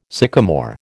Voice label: "sycomore", American